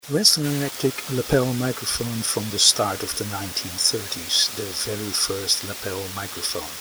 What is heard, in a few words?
Western Electric sound UK